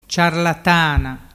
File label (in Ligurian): "ciarlatana", dialettu